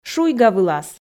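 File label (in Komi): "шуйгавылас", Komi, female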